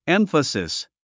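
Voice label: "emphasis", English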